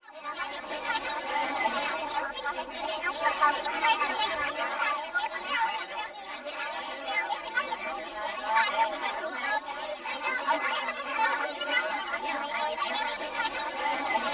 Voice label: Mii Chatter; Mario Kart 8